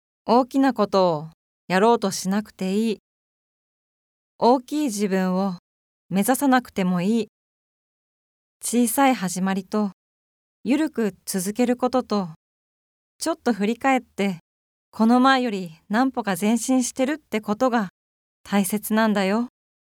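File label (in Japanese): ナレ, ボイスサンプル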